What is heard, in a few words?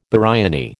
biryani, American